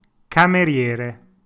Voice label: "cameriere", Italian